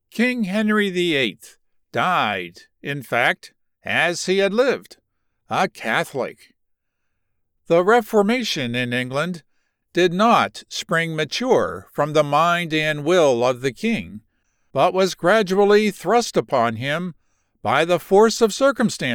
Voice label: Non Fict 1st person history informed